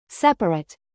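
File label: "contract", adjective, British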